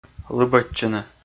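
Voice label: "лыбӧдчыны", Komi, male; kpv audio dict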